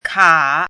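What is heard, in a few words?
"kǎ", Chinese; 咯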